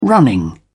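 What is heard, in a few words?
"running", British English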